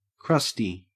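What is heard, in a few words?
"crusty", Australian English; pronunciations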